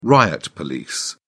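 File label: "riot police", British English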